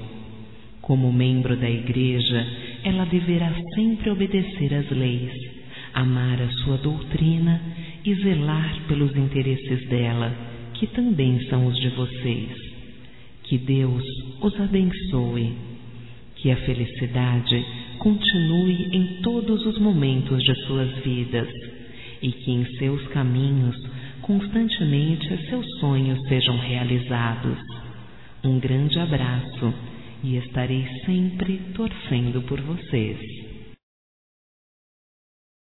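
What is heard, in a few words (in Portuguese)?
voz, Mensagem para Pais da Filha Batizada, female, Telemensagens; Telemensagens 2017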